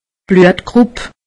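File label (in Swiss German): "bluttgrupp", Alsatian; medecine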